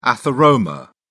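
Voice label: "atheroma", English, uk British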